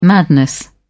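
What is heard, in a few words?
"madness", British English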